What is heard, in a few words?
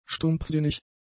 "stumpfsinnig", German; adjectives